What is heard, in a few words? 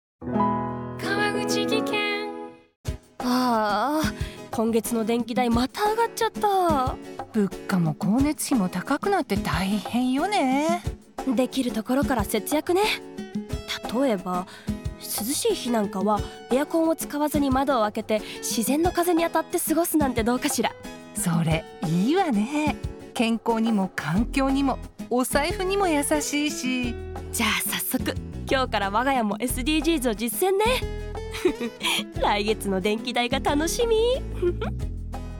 ad radio; amido; energysaving